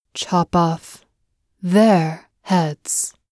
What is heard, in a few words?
"chop off their heads", voice over actresses